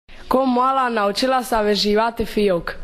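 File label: "fijok", Croatian dialect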